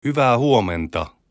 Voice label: good morning, finnish